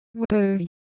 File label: Semai Texture; ideophones